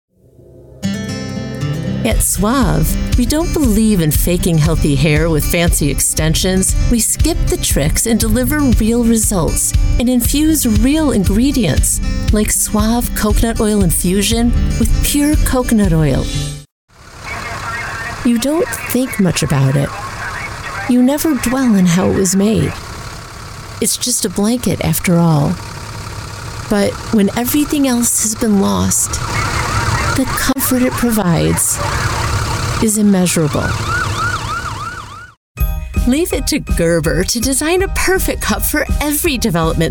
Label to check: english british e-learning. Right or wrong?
Wrong